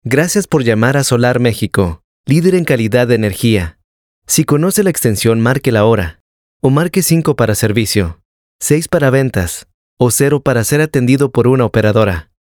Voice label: Spanish Mexican IVR

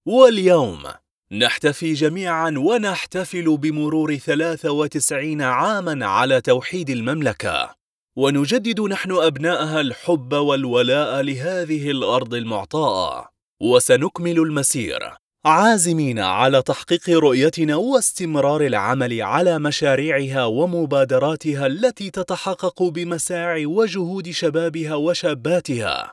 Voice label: arabic documentaries

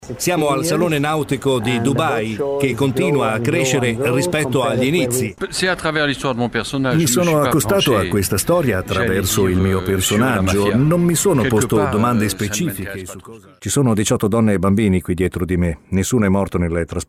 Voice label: italian others

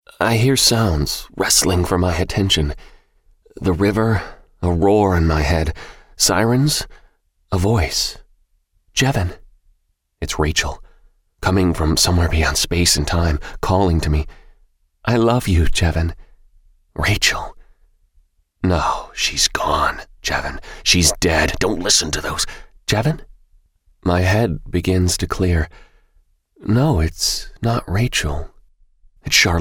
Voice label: english american audiobooks

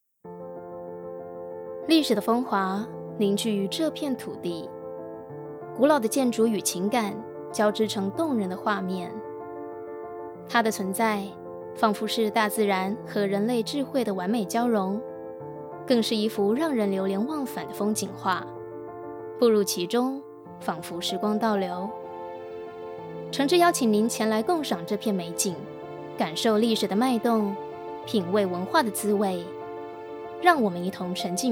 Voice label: chinese mandarin narration; Taiwanese Mandarin speaker

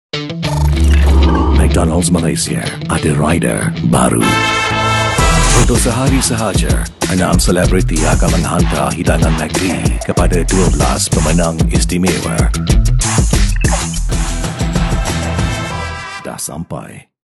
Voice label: malay promos

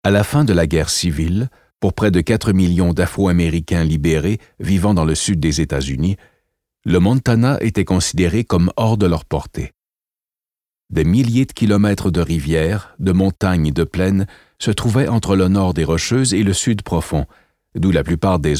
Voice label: french canadian corporate videos

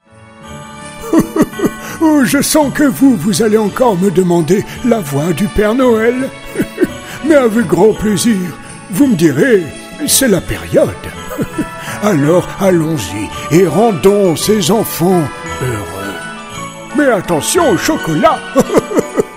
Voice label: french switzerland impersonations; swiss french

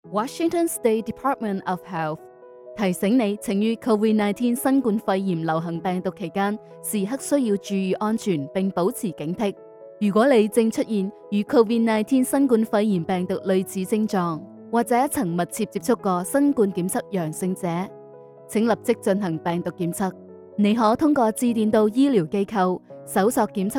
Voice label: chinese cantonese corporate

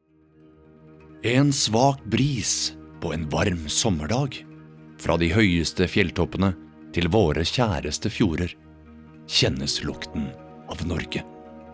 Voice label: norwegian commercial, norwegian voice actors

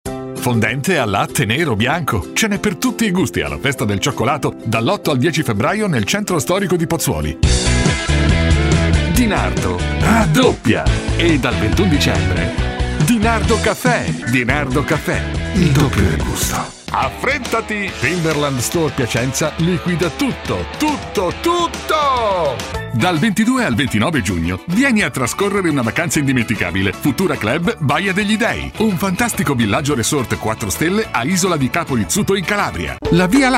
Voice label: italian commercial

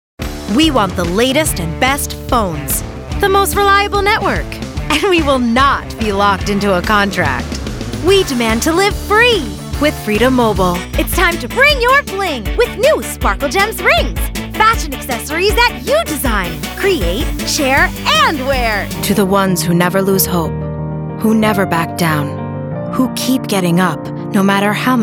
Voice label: english american others

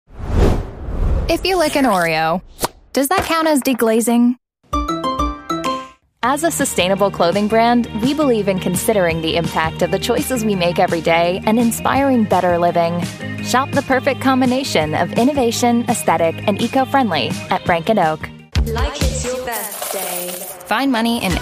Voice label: english canadian commercial

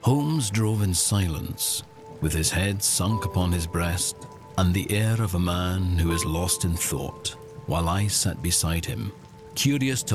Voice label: english scottish audiobooks

